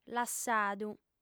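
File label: "lassadu", female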